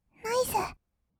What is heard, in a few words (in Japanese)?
"ナイス", kawaii voice; 1200 kawaii voice!!; 色々なワンショット